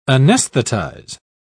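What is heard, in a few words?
"anesthetize", British English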